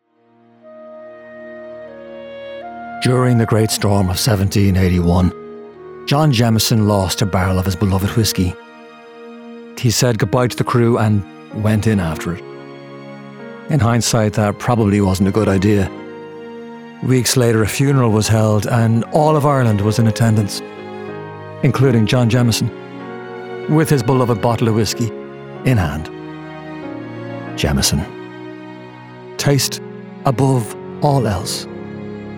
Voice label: Storytelling, Gravelly, Distinct; Jameson